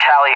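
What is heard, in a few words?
"tally", English, USA; DCS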